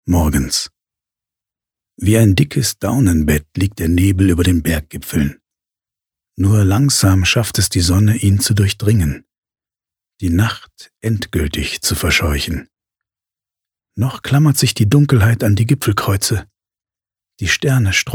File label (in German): Schauspiel, Sanft